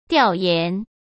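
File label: "调研", Mandarin Chinese; chinese-flashcards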